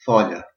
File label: hello, albanian gheg; Fâla